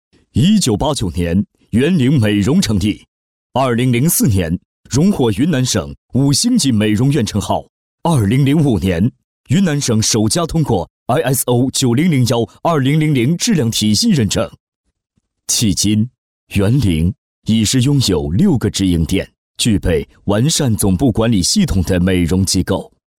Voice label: 男; 源玲美容

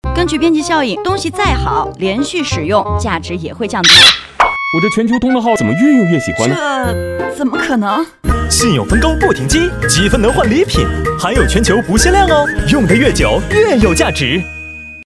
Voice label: 女 TVC; 中国移动15sTVC

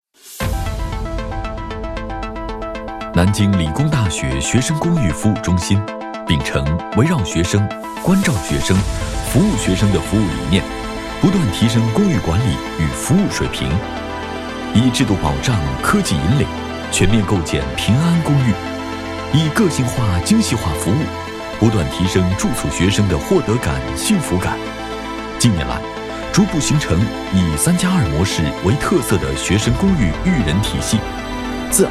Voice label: 男 宣传 专题; 南京理工大学; 展望未来

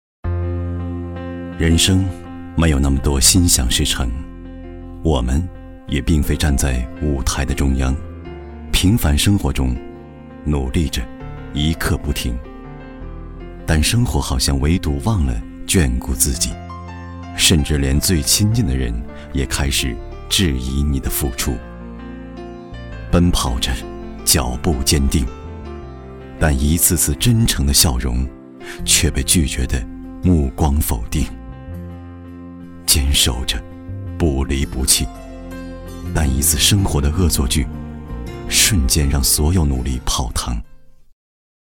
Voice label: 男 旁白 自然 温情 自信; 人生

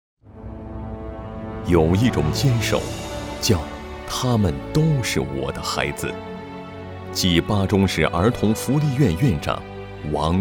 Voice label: 男 人物纪录讲述

